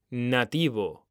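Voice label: "nativo", Spanish; mekanta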